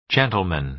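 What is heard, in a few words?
"gentleman", American English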